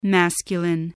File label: "masculine", voice